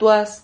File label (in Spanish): "tuas", Silabas